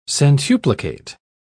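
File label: "centuplicate", British English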